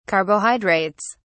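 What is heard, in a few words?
"carbohydrates", English, British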